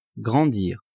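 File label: "grandir", French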